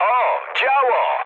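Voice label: Polish damaged anti-aircraft mood medium; speech; War Thunder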